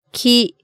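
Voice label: "ki", Japanese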